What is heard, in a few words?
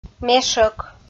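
"мешӧк" Komi, female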